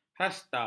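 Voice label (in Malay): "hasta", Malay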